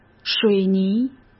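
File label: "水泥", Mandarin Chinese; hsk; chinese-flashcards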